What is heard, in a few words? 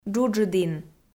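"джуджыдін", Komi, female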